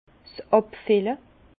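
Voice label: "abfille", Alsatian, Bas Rhin, mis en bouteille; vin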